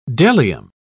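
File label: "bdellium", English, British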